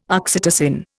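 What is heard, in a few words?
"oxytocin", Indian English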